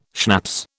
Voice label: "schnapps", British

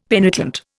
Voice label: "penitent", Indian; Dictionary

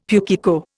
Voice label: "pukeko", Indian; Dictionary

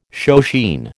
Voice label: "shoeshine", American

